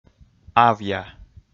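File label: "авъя", male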